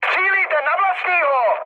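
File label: player friendly fire, Czech, mood med; speech; War Thunder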